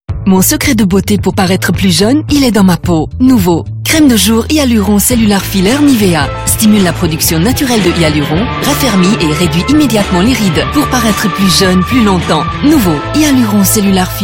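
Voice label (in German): Deutsch Französisch Schweizerdeutsch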